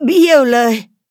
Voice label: defeat, th; cookie run